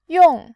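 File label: "yong", Mandarin Chinese, tone 4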